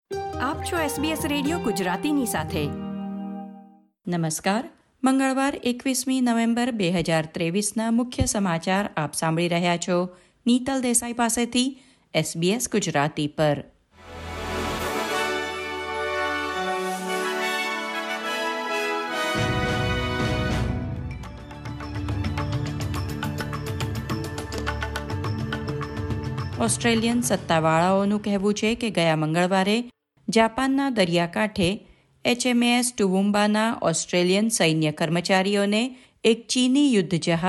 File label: gujarati news bulletin; ૨૧ નવેમ્બર ૨୦૨૩ના મુખ્ય સમાચાર; SBS Gujarati